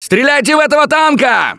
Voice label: battlecry tank, russian; Gambler